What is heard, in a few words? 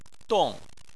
"dong4", Chinese